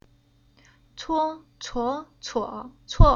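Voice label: "Cuo", Chinese syllable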